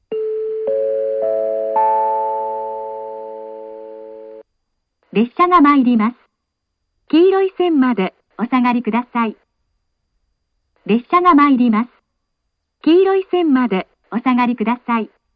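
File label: platform 3 approaching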